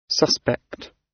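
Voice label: "suspect", British; Crime and punishment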